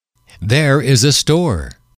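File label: "there is a store", English, male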